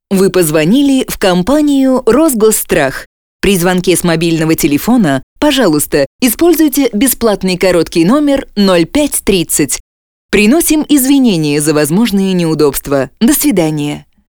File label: IVR